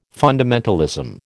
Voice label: "fundamentalism", American